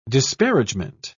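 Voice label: "disparagement", English, British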